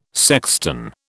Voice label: "sexton", British